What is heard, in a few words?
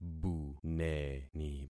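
"buneni", concatenated syllables; Affixing experiment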